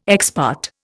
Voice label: "ex parte", Indian